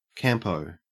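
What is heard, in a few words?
"campo" English, Australian accent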